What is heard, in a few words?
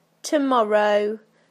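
"tomorrow", British English; PictureDictionary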